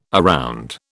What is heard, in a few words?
"around", British